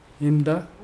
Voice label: come, Mokilese